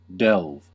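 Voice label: "delve", US English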